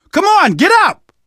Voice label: revive friend loud, survivor voice; source engine; left 4 dead 2; manager